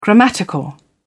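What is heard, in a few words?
"grammatical", English, British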